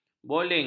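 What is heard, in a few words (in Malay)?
"boling", Malay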